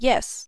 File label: summoner acknowledgement, voices